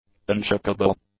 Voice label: "unshakeable", english; adjectives